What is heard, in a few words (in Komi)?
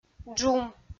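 "джум", female; kpv; audio dict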